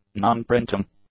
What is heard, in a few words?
"non-printing", english; adjectives